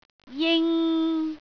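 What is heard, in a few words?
"jing3", Cantonese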